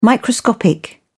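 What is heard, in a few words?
"microscopic", British English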